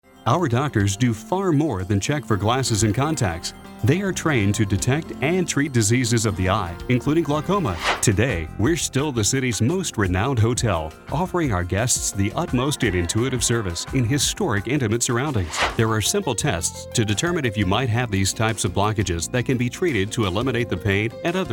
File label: male